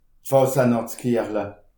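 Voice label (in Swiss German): "fasenacht", Alsatian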